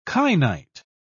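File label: "kainite", British English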